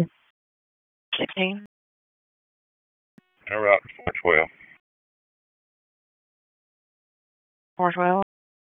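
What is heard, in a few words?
Police Southeast District Dispatch; Raleigh; wake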